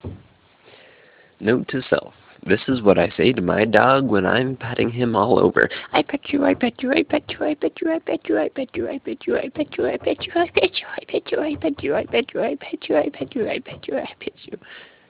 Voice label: Recorded Message